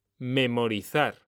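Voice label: "memorizar", Spanish; mekanta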